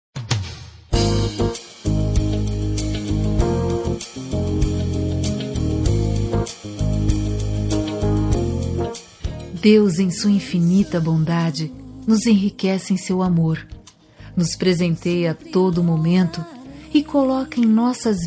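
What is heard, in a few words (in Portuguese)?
Evangélica Aniversário de Prima, female, telemensagens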